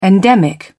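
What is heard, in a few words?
"endemic", British English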